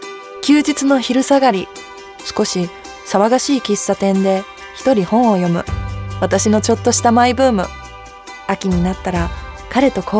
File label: Japanese, Voice Over Commercial